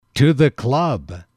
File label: to The Club, M